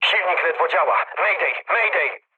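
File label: damaged engine, mood med, Polish speech; War Thunder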